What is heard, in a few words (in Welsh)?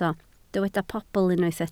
"pobl", Welsh